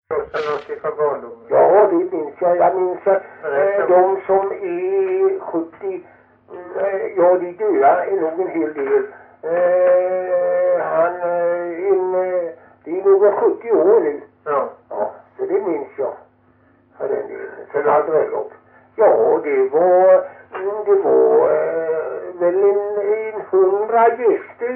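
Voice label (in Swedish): Hulterstad Öland, Svenska sagor, dialekter; Folkminnen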